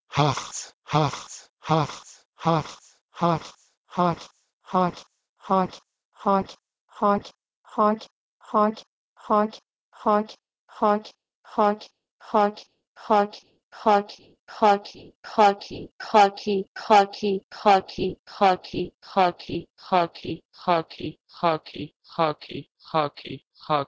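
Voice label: Proto-Indo-European h2eh2s to Urdu xaki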